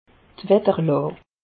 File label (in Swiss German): "watterlaa", alsace; meteo